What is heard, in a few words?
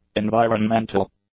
"environmental", English; adjectives